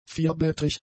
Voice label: "vierblättrig", German; adjectives

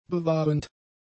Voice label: "bewahrend", German; adjectives

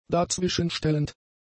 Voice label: "dazwischenstellend", German; adjectives

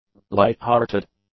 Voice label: "light-hearted", english; adjectives

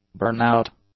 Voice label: "burnout", English; nouns

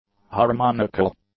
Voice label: "harmonical", English

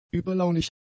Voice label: "übellaunig", German; adjectives

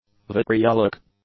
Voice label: "vitriolic", English; adjectives

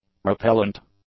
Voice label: "repellant", English; adjectives

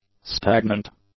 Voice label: "stagnant", English; adjectives

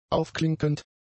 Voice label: "aufklinkend", German; adjectives

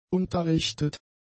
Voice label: "unterrichtet", German; adjectives

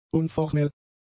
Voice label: "unformell", German; adjectives

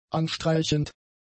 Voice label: "anstreichend", German; adjectives

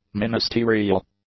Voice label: "ministerial", English; adjectives

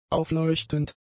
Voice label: "aufleuchtend", German; adjectives

